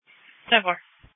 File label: Police Dispatch